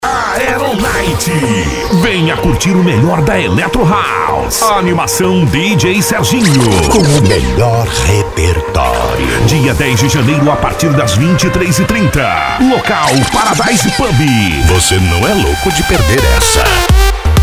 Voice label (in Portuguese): Locutor Propaganda Pub; Paradise Pub